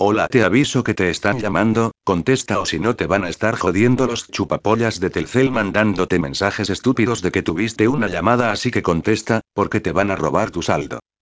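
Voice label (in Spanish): llamada loquendo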